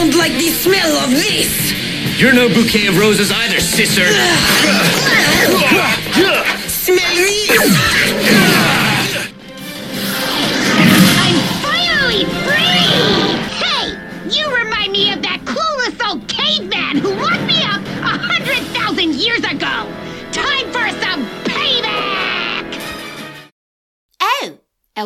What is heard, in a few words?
Characters, en-US